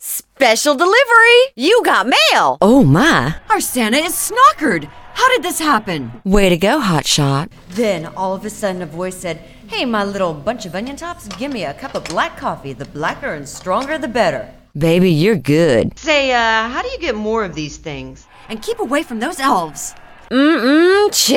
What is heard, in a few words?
character